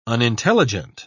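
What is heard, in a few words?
"unintelligent", British English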